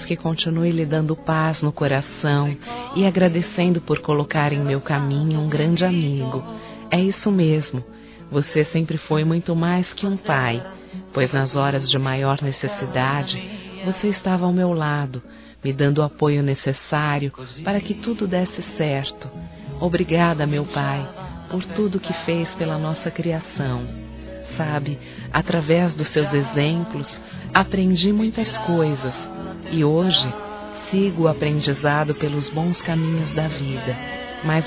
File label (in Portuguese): Aniversário Pai Feminino; Aniversários; Aniv Familiar